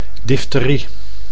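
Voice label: "difterie", Dutch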